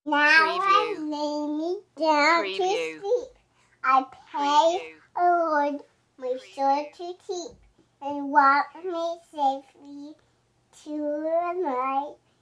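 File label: Toddler Bed Time Prayer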